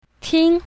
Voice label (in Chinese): "ting1", pinyin south